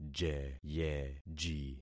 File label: "jeyeji", concat syllables; Affixing experiment